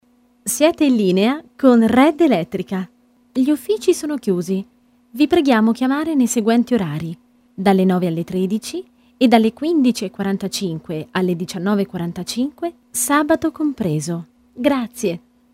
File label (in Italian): ITALIANO